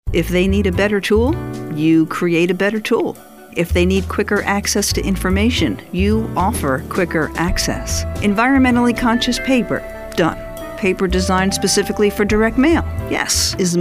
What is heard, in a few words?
Narration